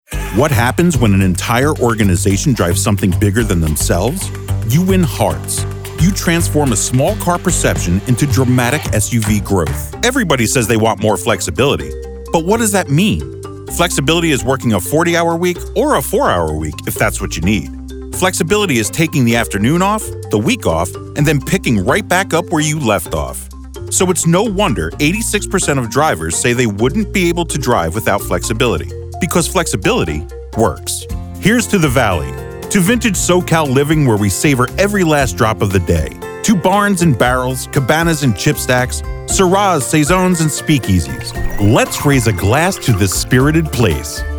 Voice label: vo narration